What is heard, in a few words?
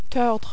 "tordre", French